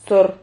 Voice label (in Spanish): "zor", Silabas